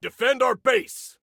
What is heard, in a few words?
Defend Base, Soldier Male